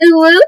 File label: uwu isolated 3db boosted